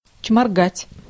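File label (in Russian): "чморгать", Russian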